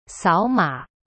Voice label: "扫码", Chinese; sao ma; cyberchinese

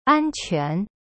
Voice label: "安全", Chinese; an quan; cyberchinese

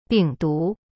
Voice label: "病毒", Chinese; bing du; cyberchinese

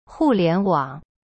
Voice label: "hu4lian2wang3", Chinese; cyberchinese

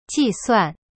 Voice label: "ji4suan4", Chinese; cyberchinese

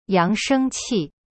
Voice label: "yang2sheng1qi4", Chinese; cyberchinese

